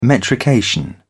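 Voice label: "metrication", English, British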